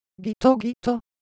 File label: Japanese Texture, Ideo Phones